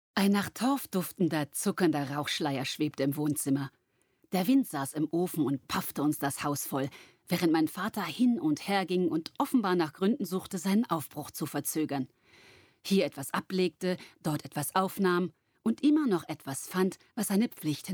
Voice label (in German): Hörbuch Roman; Deutschstunde